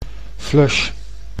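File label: "flush", Dutch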